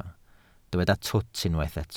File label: "twt", Welsh